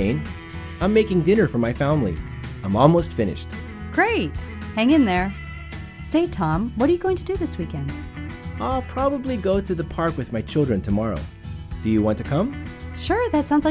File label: Conversation, English; Unit Seven